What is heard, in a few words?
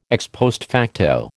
"ex post facto", American